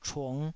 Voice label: "chung5", taiwanese

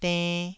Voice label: "denn2", Taiwanese syllable

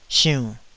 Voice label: "siunn3", Taiwanese syllable

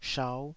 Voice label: "shau5", taiwanese Syllables

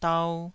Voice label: "dau2", Taiwanese syllable

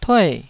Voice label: "tue4", Taiwanese

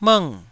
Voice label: "mng4", taiwanese syllables

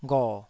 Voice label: "gho3", Taiwanese syllable

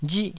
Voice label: "rih4", taiwanese

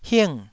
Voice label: "hing4", Taiwanese syllable

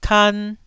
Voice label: "kan1", taiwanese syllable

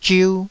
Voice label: "zhiu2", Taiwanese

